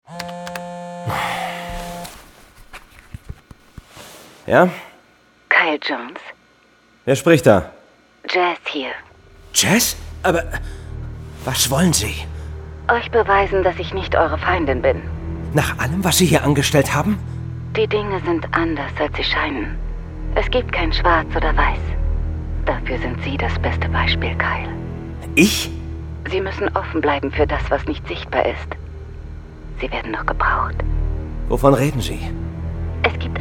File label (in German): Hoerspiel; Foster Folge 8 Jazz